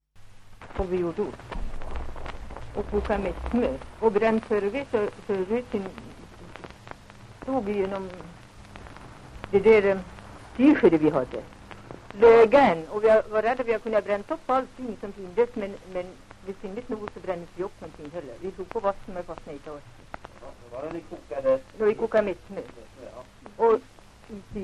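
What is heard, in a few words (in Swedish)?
Järbo Gästrikland, Svenska sagor, dialekter; Folkminnen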